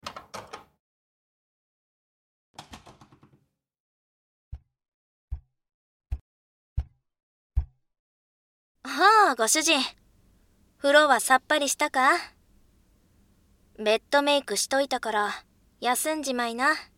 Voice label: メイドさんのおつかれさま肩叩き（右耳）（ノイズ除去なし）; ますずさんと耳かき

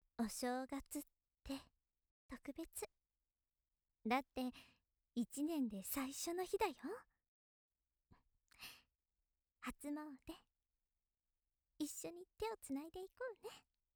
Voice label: 優しいお姉さん お正月だね

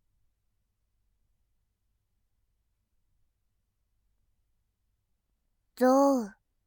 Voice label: 「象」, ASMR

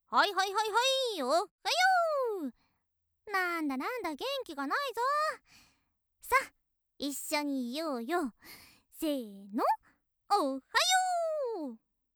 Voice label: 元気JK おはよう

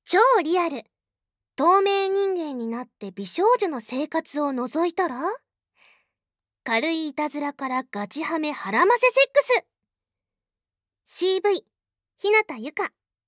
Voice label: タイトルコール, ASMR

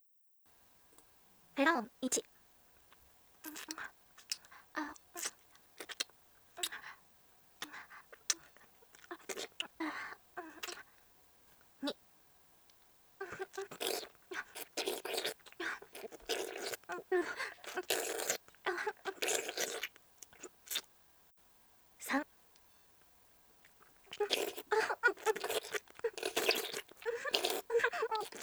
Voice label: フリートーク, 超ハイテンション; 初接客; 脳トロ; 音量調整版x1.92

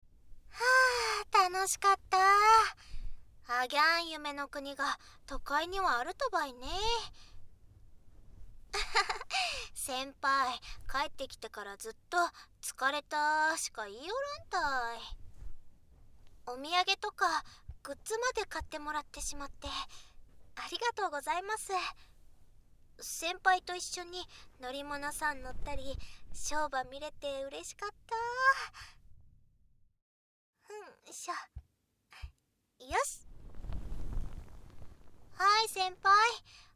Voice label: お疲れ様の耳かきばい！（ノイズ除去なし）, 九州娘; 九州娘とおしかけラブラブタイム！; ダミーヘッド＆ダミーボディマイク使用

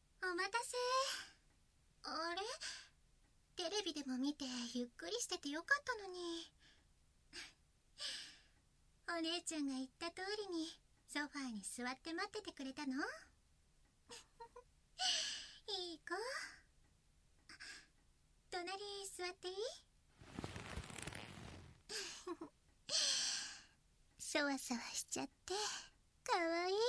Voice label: 癒しの全身マッサージ, ASMR; カッチカチ; SE有り

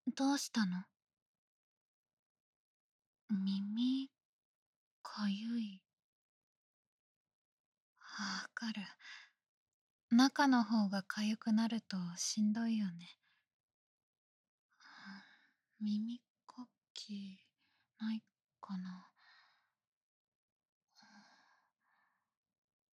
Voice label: 耳かきと世間話, ASMR

